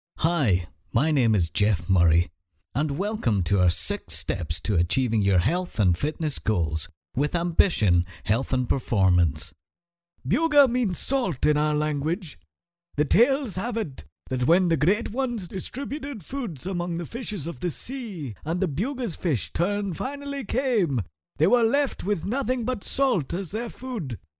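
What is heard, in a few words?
English Scottish, male, voice over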